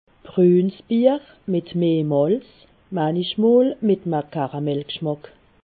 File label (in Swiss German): "brunsbier", alsace